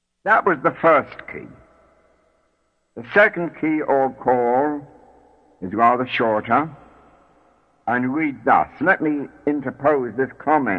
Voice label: The 2nd 11 Enochian Keys english; Enochian Magick; The World of Enochian Magick; Israel Regardie